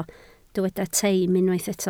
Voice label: "teim", Welsh